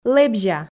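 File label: "лэбзя", Komi, female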